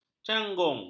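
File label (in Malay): "canggung", Malay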